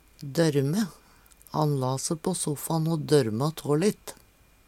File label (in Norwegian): dorme, Numedalsmål; "dørme" = nesten i "drøymeland"